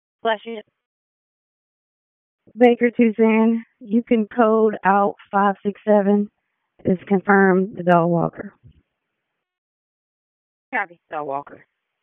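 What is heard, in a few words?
Police Northeast District Disp, radio; Raleigh; wake p25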